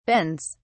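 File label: "bends", English, British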